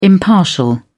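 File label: "impartial", British English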